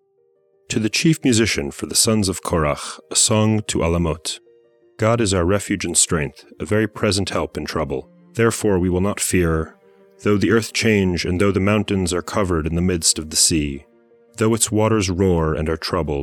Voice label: poetry and prayer psalm english